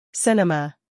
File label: "cinema", English